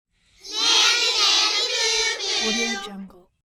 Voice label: children group nanny boo boo

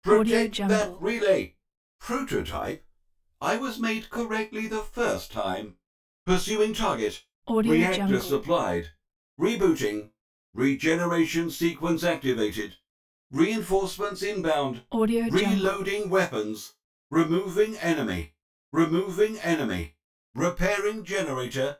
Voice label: male presenting android effect; soundstormlabs

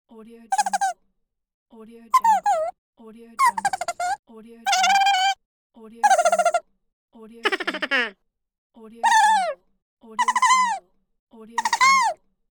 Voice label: cartoon crazy squirrel

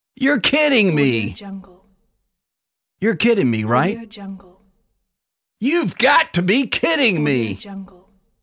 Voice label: male voice you're kidding me

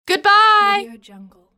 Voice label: female voice goodbye

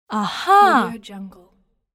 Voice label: "uh huh", teenage girl

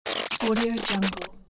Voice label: glitch voice

